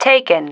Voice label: "taken", female